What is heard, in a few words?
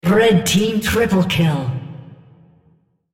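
On Champion Triple Kill red female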